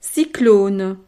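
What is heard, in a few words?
"cyclone", French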